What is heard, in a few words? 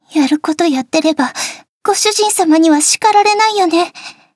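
start, Japanese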